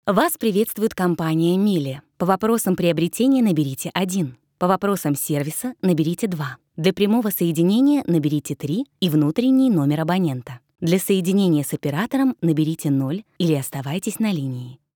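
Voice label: Ukrainian, female, interactive voice response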